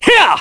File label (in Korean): Vox Skill, kr; voices; heroes; Clause; kingsraid